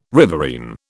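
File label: "riverine", British English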